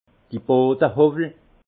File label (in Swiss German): "bodehowwel", alsace; vin; rabot de fond